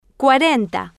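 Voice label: "cuarenta", Spanish, female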